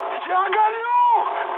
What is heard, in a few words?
on fire, mood high, speech ru; War Thunder